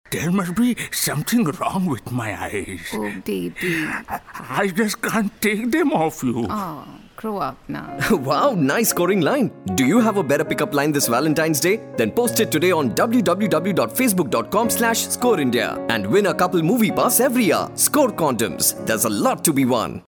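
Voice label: eyes new English, male voice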